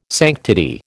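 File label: "sanctity", American English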